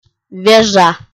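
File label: "вежа", Komi, female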